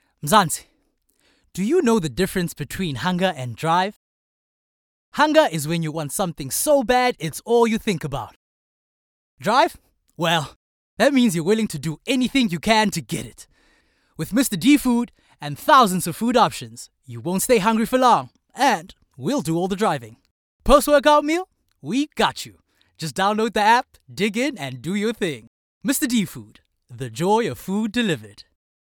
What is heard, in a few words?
Spotify VO